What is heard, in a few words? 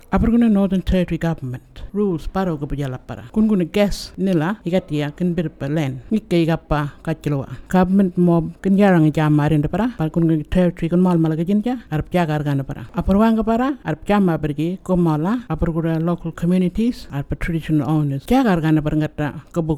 burarra